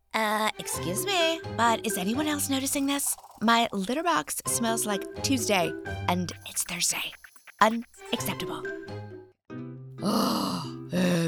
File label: Character